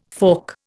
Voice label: "fork", Indian